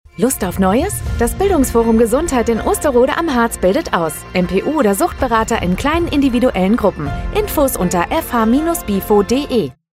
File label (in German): Funkspot; Bildungsforum Gesundheit